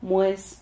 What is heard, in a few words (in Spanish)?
"mues", Silabas